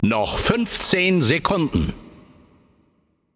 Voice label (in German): Time Remaining, German; paladins